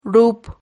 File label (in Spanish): "rup", silabas